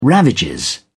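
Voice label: "ravages", British English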